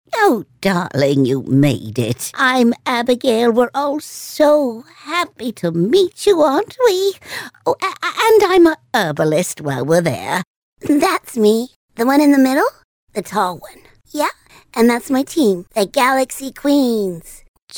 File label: english american animation